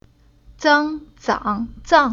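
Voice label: "Zang", Chinese syllable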